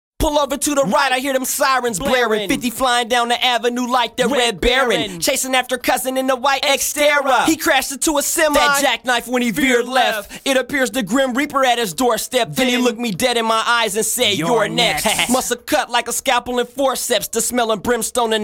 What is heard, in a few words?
fist full acapella